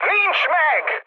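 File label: Hungarian bomb failure mood high, speech; War Thunder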